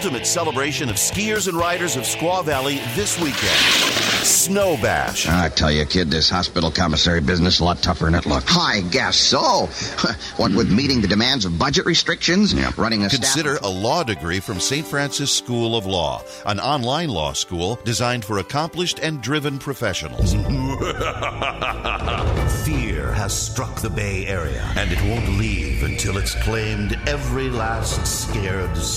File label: voice over